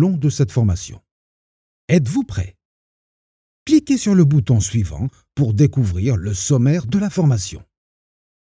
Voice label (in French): e-Learning, Devoir de Conseil